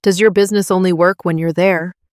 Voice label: voice narrator